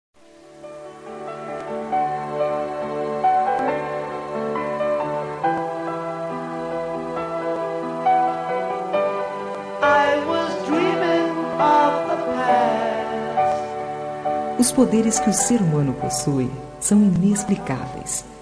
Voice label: Romântica Estou Pensando em Você

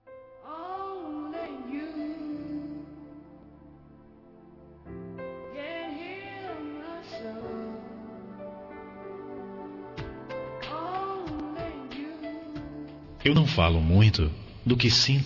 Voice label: Romântica Com Declaração

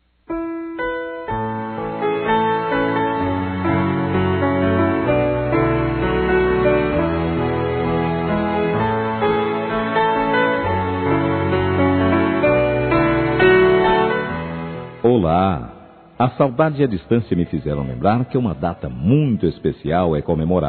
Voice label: Aniv. de Nora Distante, M

